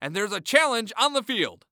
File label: Sports Human Voice Male Football Announcer